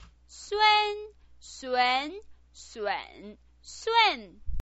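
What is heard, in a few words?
"sun", Mandarin Chinese pinyin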